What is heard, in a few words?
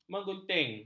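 "menggunting", Malay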